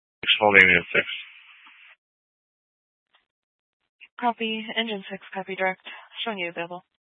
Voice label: Fire Department Dispatch, scanner